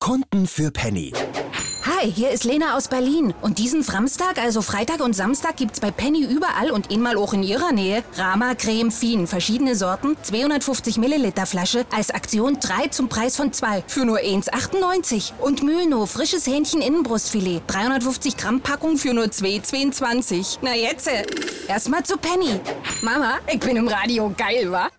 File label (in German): funkwerbung berlinerisch